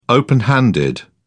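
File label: "open handed", British English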